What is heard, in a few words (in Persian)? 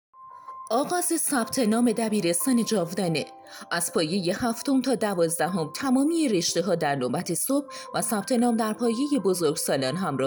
صدای خانم